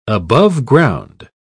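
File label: "aboveground", English, British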